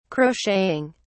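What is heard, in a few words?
"crocheting", British English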